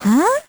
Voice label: vo confused; Crypt of the NecroDancer